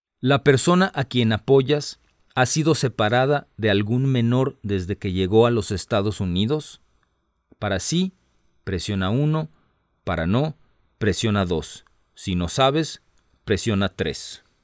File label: Spanish, hotline